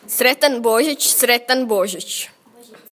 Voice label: "Merry Christmas", cro; Sretan Božić

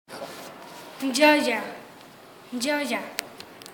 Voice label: "Joy", SPANISH (Castellano); joia

